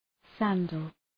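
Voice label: "sandal", English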